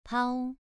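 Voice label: "pao", Mandarin Chinese syllable, second tone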